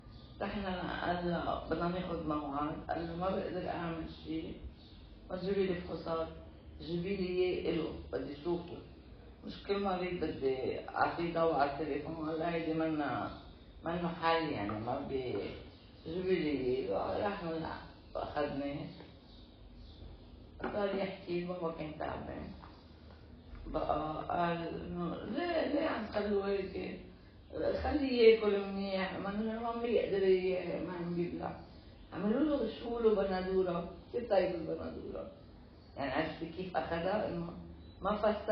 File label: sample phone call, Arabic Dialect; Video conversation sample; consent-verified audio clip